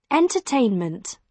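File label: "entertainment", British English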